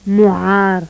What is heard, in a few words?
voiced pharyngeal fricative medial, agul